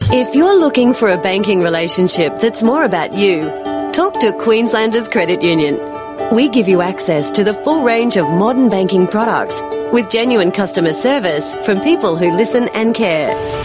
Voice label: AU English Banking